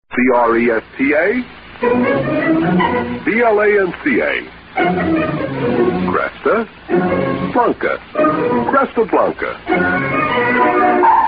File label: vintage commercials; crestablanca